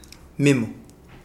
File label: urine, Kapingamarangi